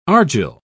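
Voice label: "argil", British English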